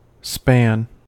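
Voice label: "span", American English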